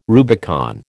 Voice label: "Rubicon", American